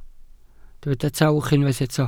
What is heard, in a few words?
"tawch", Welsh